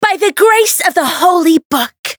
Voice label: chant

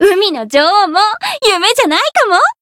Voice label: voice jp; millecha

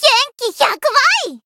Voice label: Voice healed jp; may

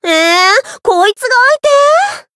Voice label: encount fear, jp; harle

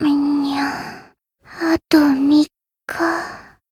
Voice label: Voice rest jp; melpipia; zodiac

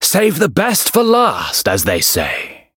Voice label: variable chant; zeviro

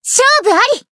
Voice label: finish jp; violet

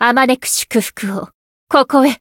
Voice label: limitbreak, jp

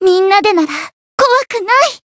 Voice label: Voice limit break jp; yuna

